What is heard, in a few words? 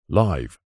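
"live", radio production un processed male voice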